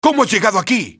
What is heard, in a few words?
how did, spanish soldier; XBOX